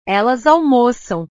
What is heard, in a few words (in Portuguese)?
"elas almocam", portugues